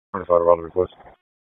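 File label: Police Northeast District Disp, radio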